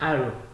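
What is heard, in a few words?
"aro", Nigerian Pidgin, male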